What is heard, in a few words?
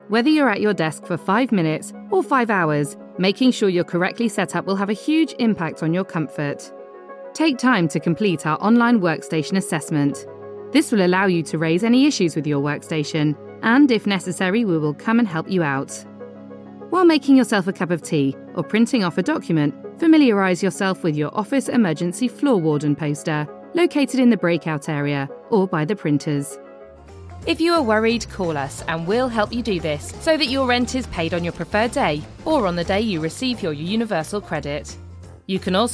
Corporate